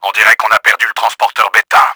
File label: BETA DOWN, french; Battle Engine Aquila; XBOX